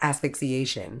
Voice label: "asphyxiation", Medical English; əs.fɪk.siːˈeɪ.ʃən; uhs-fiCk-see-AY-shuhn

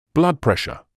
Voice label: "blood pressure", medical english uk; blud-PRESH-uh; blʌdˈprɛʃə

